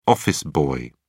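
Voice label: "office boy", British English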